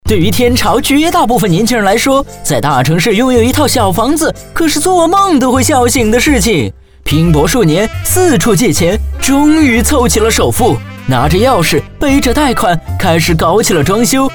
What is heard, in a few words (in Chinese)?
男 轻松飞碟说, 国语男声配音; 甲醛